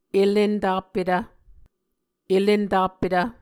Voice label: "elentappetv", muscogee

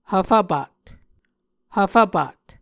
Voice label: "hvfvpat", Muscogee

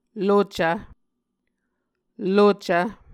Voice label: "lucv", Muscogee

